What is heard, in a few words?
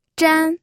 "zhan1", pinyin